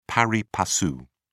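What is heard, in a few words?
"pari passu", British English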